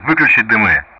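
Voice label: Speech Russian; DCS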